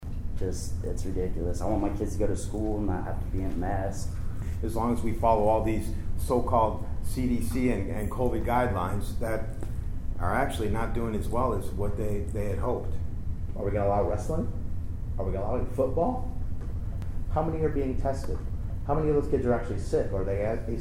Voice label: Plymouth resident comments